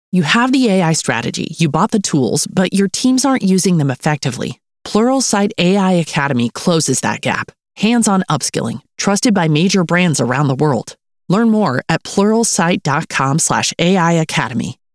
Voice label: Ad; Pluralsight